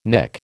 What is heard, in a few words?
"Nick", American